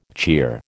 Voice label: "cheer", American

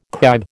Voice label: "kibe", American

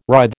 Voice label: "writhe", American

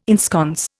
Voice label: "ensconce", Indian

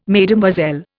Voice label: "Mesdemoiselles", Indian

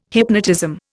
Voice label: "hypnotism", Indian

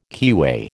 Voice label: "keyway", American

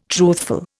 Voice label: "truthful", Indian

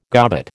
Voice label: "gobbet", American

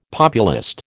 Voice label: "populist", American

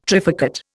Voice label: "trifurcate", Indian

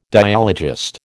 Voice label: "dialogist", American

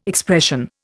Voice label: "expression", Indian

